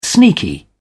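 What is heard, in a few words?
"sneaky", British English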